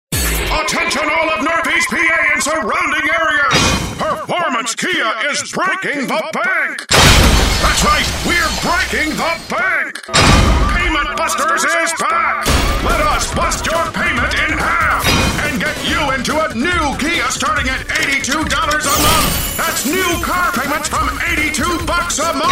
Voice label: Hard Sell, Car Dealers